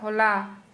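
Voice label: Santali female yesterday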